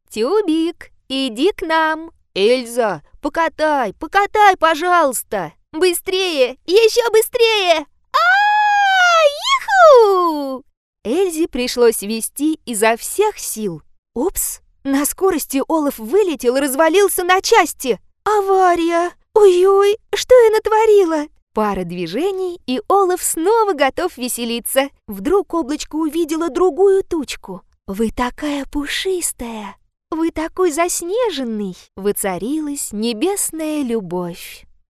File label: skazka ru